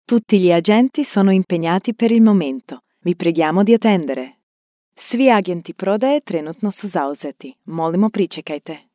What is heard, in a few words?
ivr, croatian